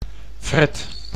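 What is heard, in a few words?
"fret", Dutch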